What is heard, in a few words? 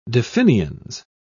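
"definiens", British English, dictionary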